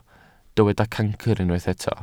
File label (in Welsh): "cancr", Welsh